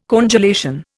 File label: "congelation", Indian